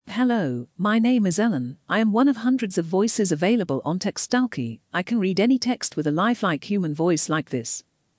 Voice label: Eng Uk Female